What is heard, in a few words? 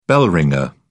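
"bell ringer", British English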